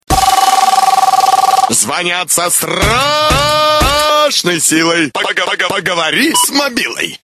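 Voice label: They're calling with terrible force!!! (phone talks); Zvonyat so strashnoi siloi!!!; telefon govorit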